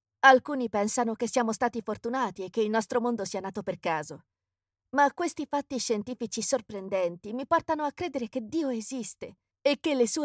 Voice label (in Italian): speakeraggio documentario